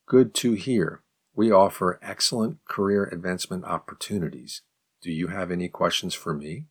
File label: advanced question slow